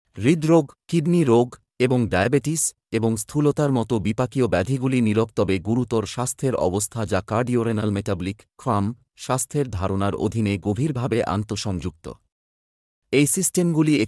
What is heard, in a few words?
video Male Bengali